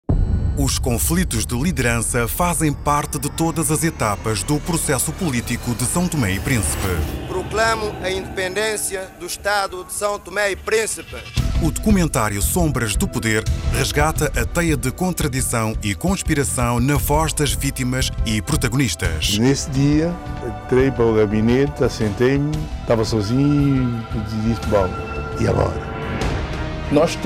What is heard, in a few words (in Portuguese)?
spot radio; Sombras do Poder